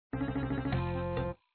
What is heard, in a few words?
customer pissed; papa s freezeria gamerip